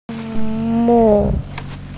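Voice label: "nu4", Mandarin Chinese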